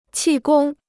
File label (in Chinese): "气功", Chinese